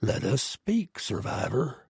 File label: trader male like greeting